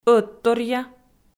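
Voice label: "ӧтторъя" Komi, female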